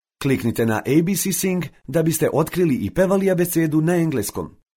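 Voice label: Serbian Serbia male narrative; serbian voice over talent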